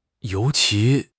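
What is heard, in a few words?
"you2 qi2", Mandarin Chinese; HSK4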